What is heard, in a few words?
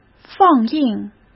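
"放映", Mandarin Chinese; hsk; chinese-flashcards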